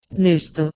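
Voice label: "nizdo", Proto-Indo-European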